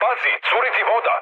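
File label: Serbian damaged water mood med; War Thunder; speech srb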